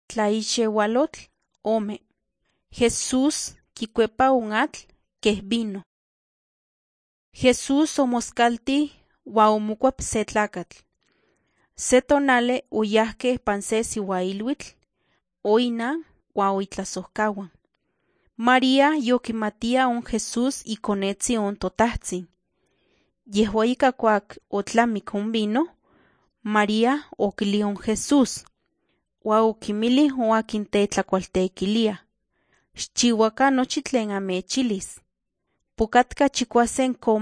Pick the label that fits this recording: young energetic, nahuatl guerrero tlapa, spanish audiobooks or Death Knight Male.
nahuatl guerrero tlapa